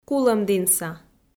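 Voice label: "кулӧмдінса", Komi, female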